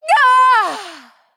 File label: Female Human Death